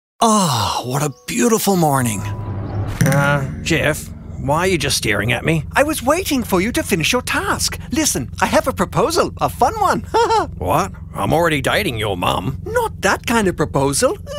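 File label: characters, male, Australian & American English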